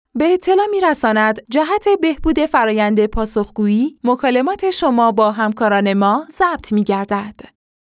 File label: Women